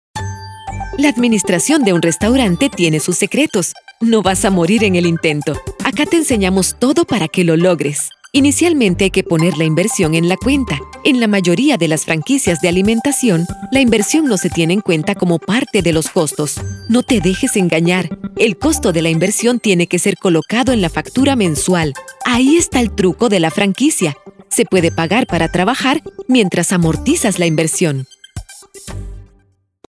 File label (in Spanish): Locucion E Learning